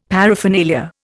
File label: "paraphernalia", Indian; Dictionary